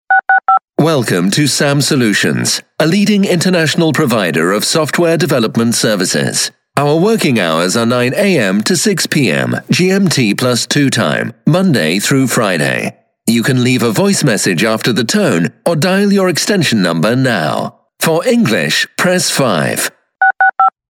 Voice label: brit, foreign man